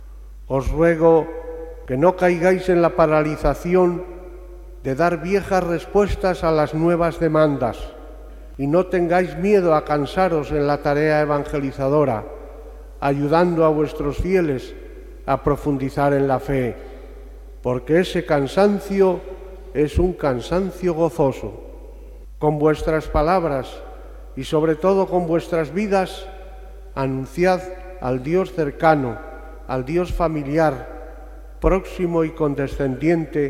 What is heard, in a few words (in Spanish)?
SONIDO OBISPO CORTE HOMILIA ORDENACION DOS NUEVOS SACERDOTES